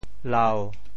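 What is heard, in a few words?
"lau1", Teochew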